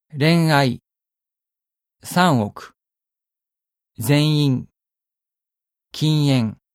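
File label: nasal vowel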